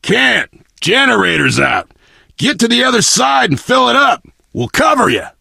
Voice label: initial meeting, survivor voice biker